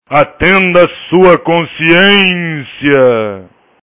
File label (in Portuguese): Amigo Espanhol Liga